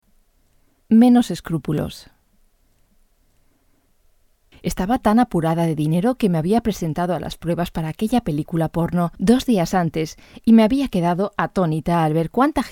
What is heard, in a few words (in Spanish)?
es audiolibro, locutora española; javier marias